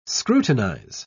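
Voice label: "scrutinise", English, British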